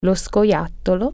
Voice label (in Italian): "squirrel", Italian